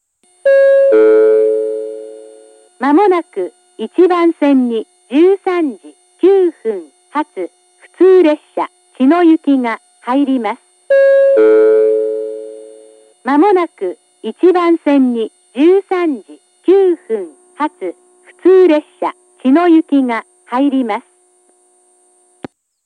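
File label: platform 1 approaching advance notice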